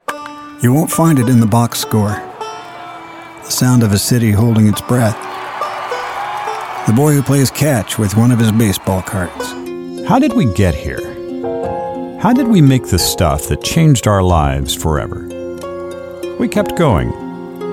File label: Narration Styles